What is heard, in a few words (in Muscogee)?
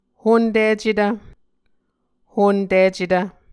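"honticetv", Muscogee word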